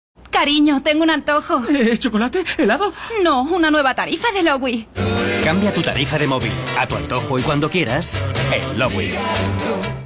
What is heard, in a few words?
spanish castilian cartoon animation